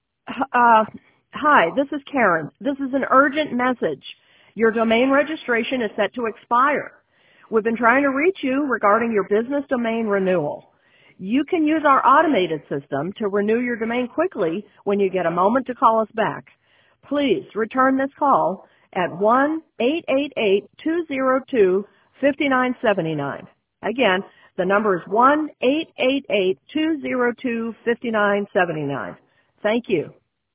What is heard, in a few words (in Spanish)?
llamada scam phone robocalls; hostalia hosting